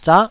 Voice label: "zah9", taiwanese